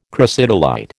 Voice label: "crocidolite", American